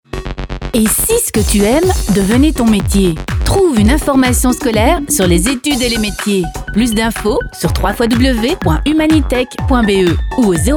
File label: Spot